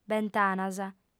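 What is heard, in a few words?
"bentanas", Sardinian, female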